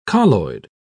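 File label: "colloid", British English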